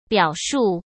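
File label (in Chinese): "表述", Mandarin Chinese; chinese-flashcards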